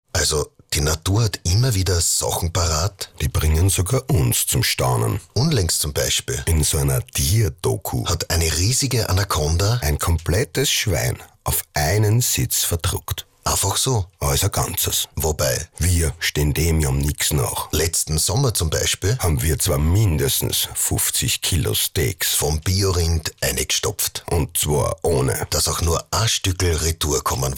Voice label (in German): Werbewunder Radio Fleisch; Werbehahn; National; 2. Platz